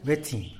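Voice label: "bïtzin", bat, Tlacochahuaya Zapotec; animals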